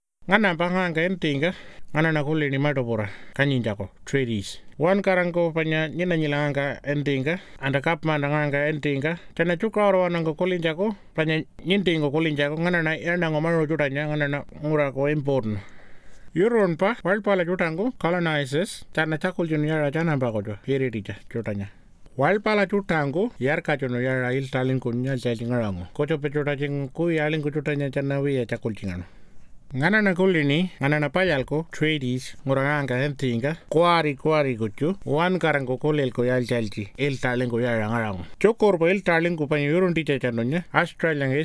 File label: Pintupi